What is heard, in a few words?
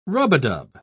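"rub-a-dub", British English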